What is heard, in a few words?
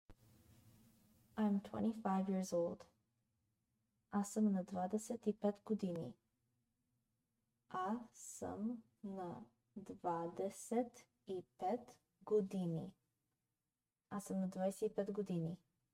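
"I am 25 years old", Bulgarian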